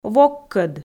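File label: "воккӧд", Komi, female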